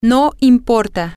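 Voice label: it doesnt matter, spanish, female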